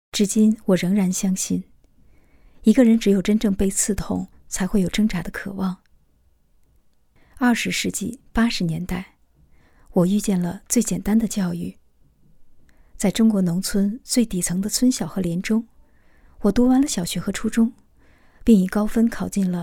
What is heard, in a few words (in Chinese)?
中年女自述